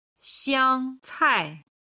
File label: "xiang cai", Chinese